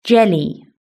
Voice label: "jelly", British English